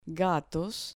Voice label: "gatos", Spanish

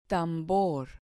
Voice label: "tambor", Spanish

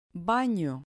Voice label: "bano", Spanish

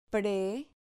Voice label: "pre", Spanish syllable

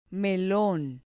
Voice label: "melon", Spanish

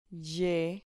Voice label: "lle", Spanish

